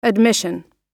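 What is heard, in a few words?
"admission", English; oxford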